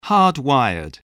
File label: "hard-wired", English, spelling